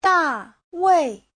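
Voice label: "Dàwèi", Mandarin Chinese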